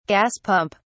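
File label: "gas pump", British English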